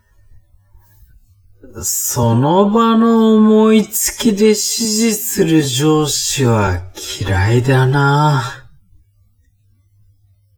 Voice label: man